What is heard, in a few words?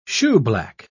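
"shoeblack", British English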